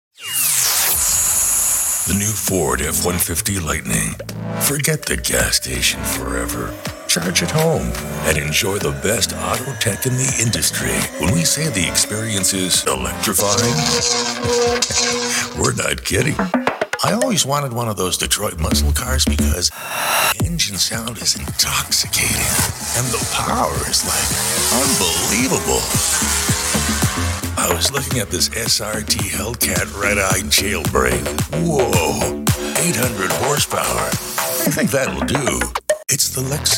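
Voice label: american male automotive, Warm Deep American Male Voice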